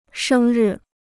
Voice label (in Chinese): "生日", Chinese